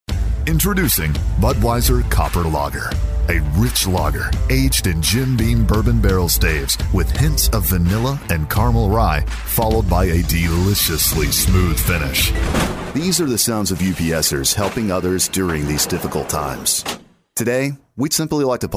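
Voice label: COMMERCIAL, voiceover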